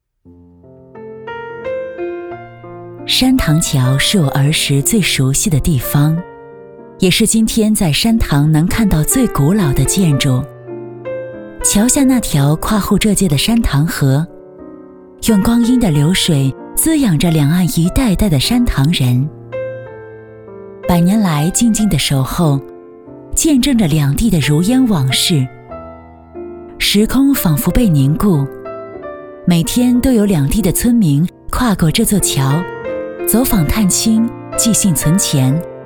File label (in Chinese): 女 其他 旁白 自然风格; 山塘桥